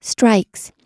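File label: "strikes", American English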